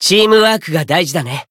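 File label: Voice win, Japanese; curio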